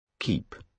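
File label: "keep", British English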